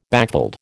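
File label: "backveld", American English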